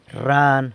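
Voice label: "rran", San Lucas Quiaviní Zapotec; frog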